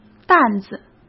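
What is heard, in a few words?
"担子", Mandarin Chinese; chinese-flashcards; hsk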